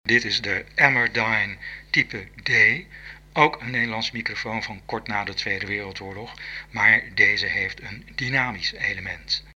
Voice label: Amerdyne Type D sound NL